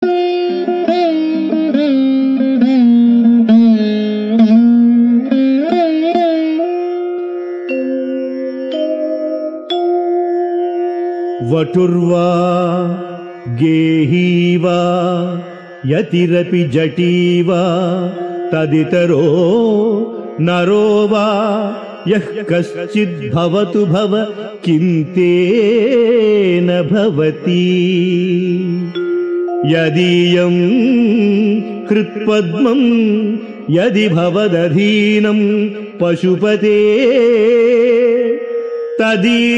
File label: Sanskrit; Sri Adi Sankaracharyas Sivananda Lahari; Adi Shankaracharya; श्रीशिवानन्दलहरी ११